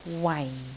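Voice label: "wai4", Cantonese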